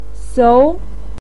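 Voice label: "sou", Mandarin Chinese syllable, second tone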